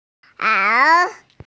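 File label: "ao3", Mandarin Chinese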